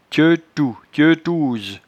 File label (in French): "tieutoutieutouse", patois jura